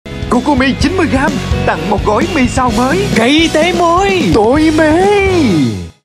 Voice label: South Vietnamese Male, voice samples; Mì; Kokomi

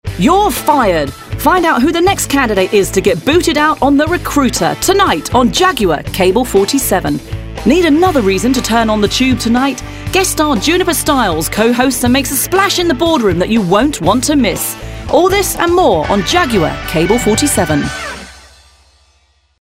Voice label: UK English TV Promo, Female

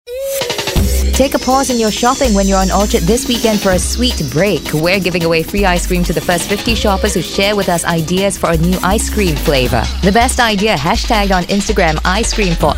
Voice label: Asian English Promo

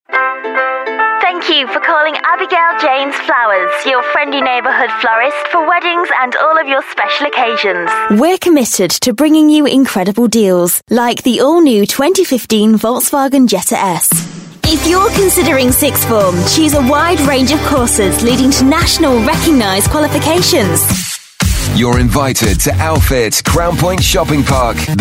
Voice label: UK English Mixed

